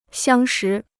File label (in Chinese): "相识", Chinese